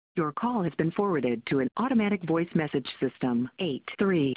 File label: Voice Mail